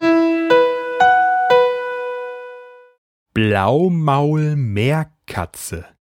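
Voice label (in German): "Blaumaulmeerkatze", German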